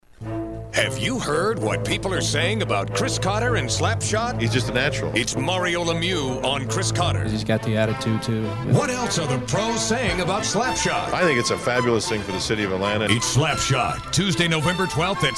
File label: radio imaging men sports